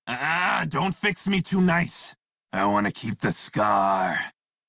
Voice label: Crew Heal Male en; Dialog; Warframe; Railjack Crew; Red Veil